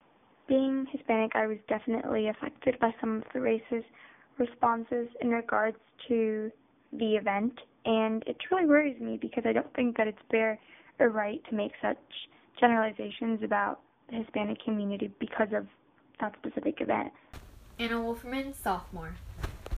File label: Audio message